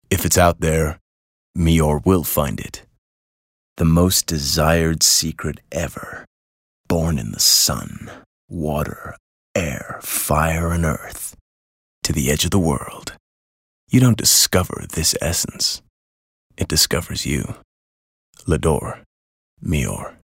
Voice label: English American accent intimate

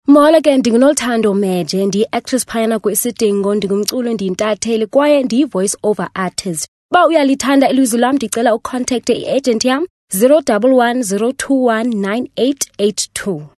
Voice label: Xhosa conversational

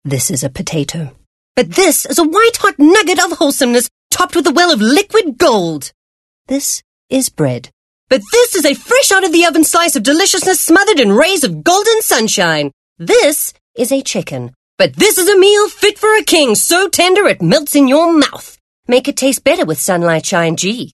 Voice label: English Bright

